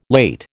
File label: "late", American